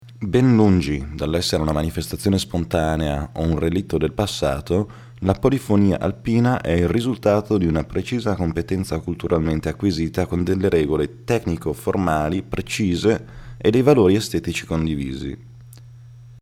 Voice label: documentario, italian